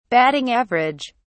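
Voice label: "batting average", British English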